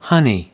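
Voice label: "honey", American English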